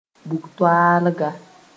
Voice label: "bug-toaalga", moore

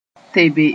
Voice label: "tebe", moore; carry a heavy item

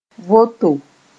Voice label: "voto", moore

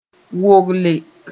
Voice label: "wogle", moore

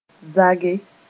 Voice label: "zage", moore

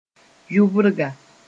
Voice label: "yʋbrga", moore

